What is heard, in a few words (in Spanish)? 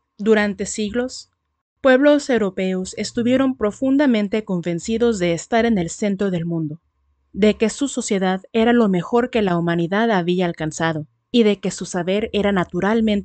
Narrador Antropologia, locución